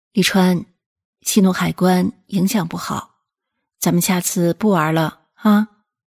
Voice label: 温柔

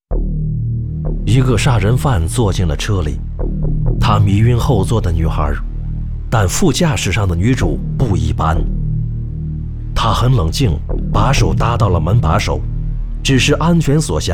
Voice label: 电影解说

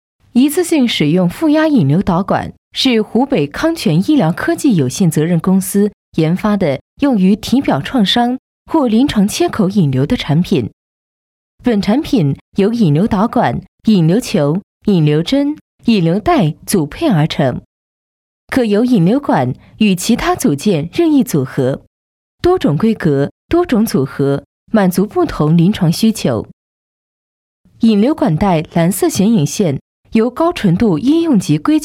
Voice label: 专题课件讲述

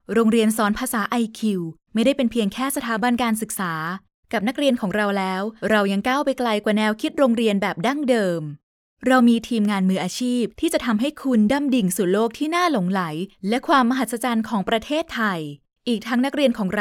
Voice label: 外籍 泰语

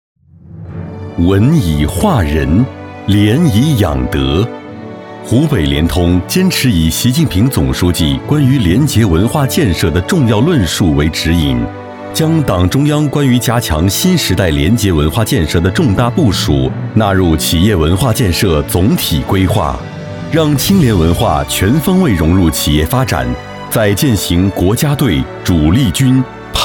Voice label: 中文 专题片; 湖北联通

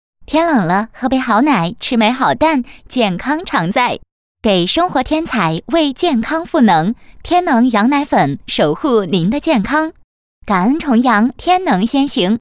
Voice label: 甜美; 重阳节羊奶; TVC广告 天能羊奶粉

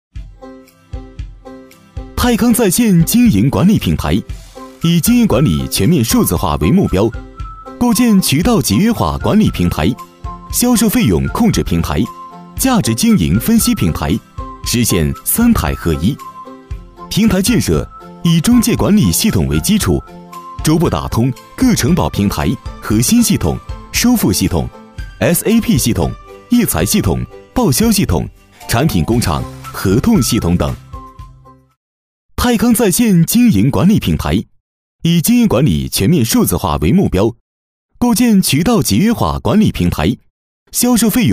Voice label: 轻正式讲述

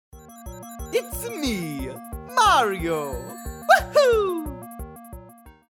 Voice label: 男美式游戏; 马里奥

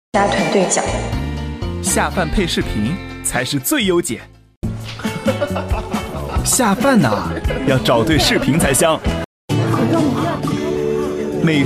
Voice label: 宣传 视频 口播, 年轻时尚; B站 x 乌江 宣传视频口播

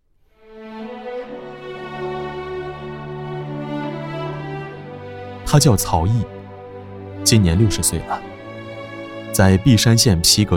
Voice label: 讲述

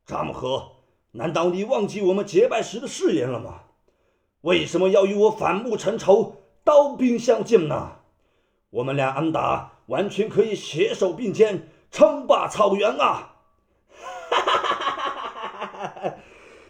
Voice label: 两人对话配音; 铁木真与扎木合

